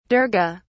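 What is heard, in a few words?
"durga", British English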